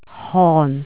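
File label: "hon4", Cantonese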